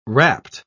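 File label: "wrapt", English, British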